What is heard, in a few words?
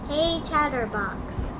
hey chatterbox, en-us